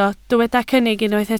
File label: "cynnig", Welsh